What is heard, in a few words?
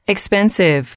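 "expensive", English, female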